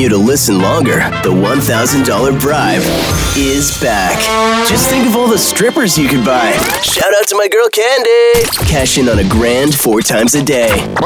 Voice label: radio men alternative, radio imaging